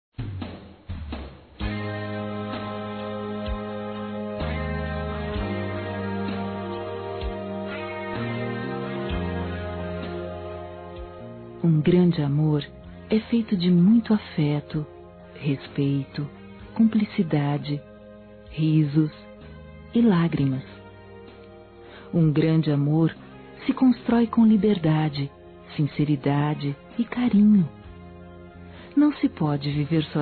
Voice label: NAMORO F; Aniversários; ANIVERSARIO romantico; fem

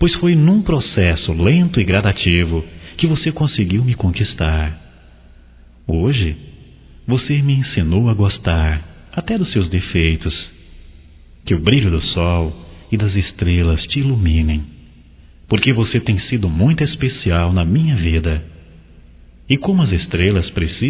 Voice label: Aniv romântico primeiro abraço, masc; Telemensagens 2017; Aniversarios

